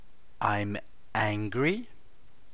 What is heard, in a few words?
angry